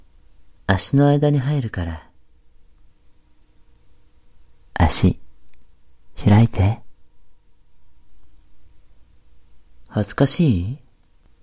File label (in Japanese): 可愛い, ASMR